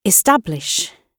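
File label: "establish", British English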